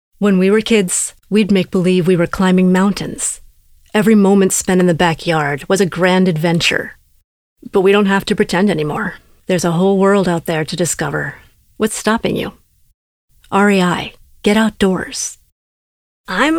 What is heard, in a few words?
COMMER DRY